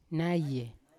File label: "naye" here, Yawa